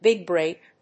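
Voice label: "big break", English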